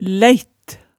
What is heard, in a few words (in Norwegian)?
"læitt", hemsedalsmaalet tale